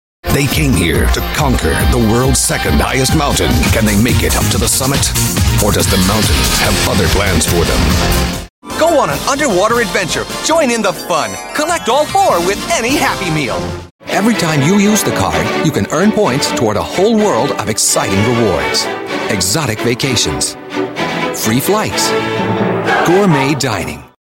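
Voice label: American, TV commercial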